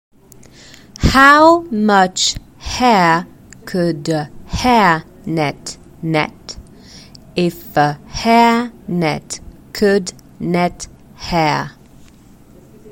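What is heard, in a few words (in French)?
Tongue twister lent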